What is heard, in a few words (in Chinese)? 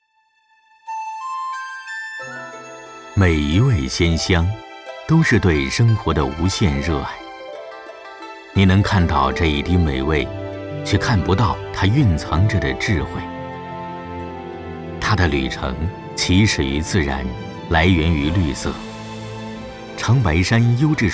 【宣传片】, 国语; 好记酱油